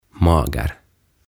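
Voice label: "Mager", Swedish; Laiha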